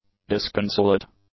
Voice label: "disconsolate", English; adjectives